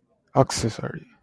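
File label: "accessory", Japanese, male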